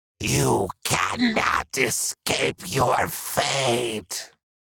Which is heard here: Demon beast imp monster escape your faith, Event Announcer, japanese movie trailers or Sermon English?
Demon beast imp monster escape your faith